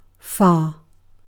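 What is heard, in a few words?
"far", British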